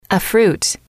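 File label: "a fruit", female, English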